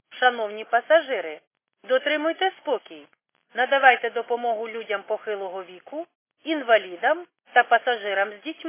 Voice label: khs st female, subway station